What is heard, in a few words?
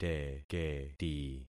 "teketi", concat syllables; Affixing experiment